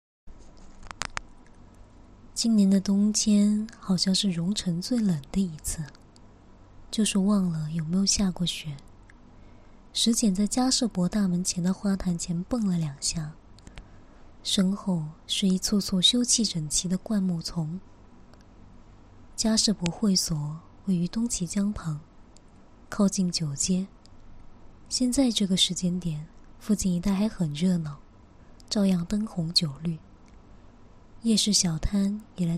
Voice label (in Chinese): 旁白